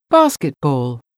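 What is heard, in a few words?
"basketball", English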